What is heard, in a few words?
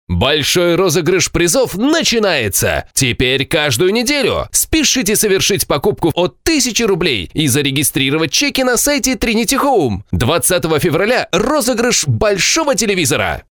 fast; демо диктора